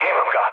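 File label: Serbian player killed enemy mood low; speech; War Thunder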